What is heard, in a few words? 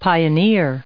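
"pioneer", us English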